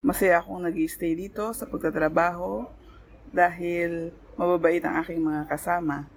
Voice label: tagalog; POP UP Zagreb